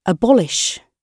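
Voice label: "abolish", British English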